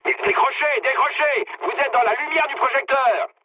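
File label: searchlite, mood medium, French; War Thunder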